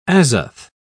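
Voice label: "azoth", British English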